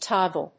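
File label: "toggle", English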